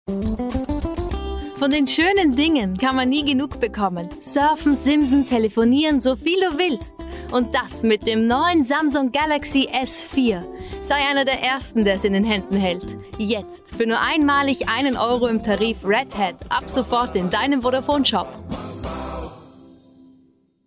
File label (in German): werbung; samsung